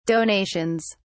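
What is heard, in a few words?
"donations", British English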